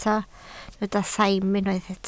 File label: "saim", Welsh